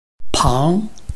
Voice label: "pang2", Chinese

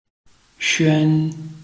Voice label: "xuan1", Chinese

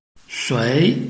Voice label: "sui2", Chinese

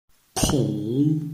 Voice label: "kong3", Chinese

